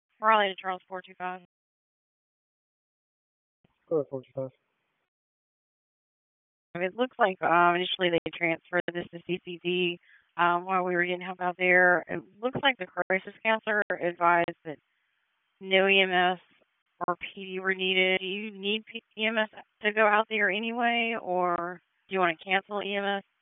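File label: Police Southeast District Dispatch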